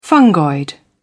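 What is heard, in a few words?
"fungoid", British English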